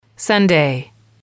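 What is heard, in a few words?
"Sunday", English, female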